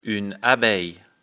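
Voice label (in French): "abeille", French; afrikalan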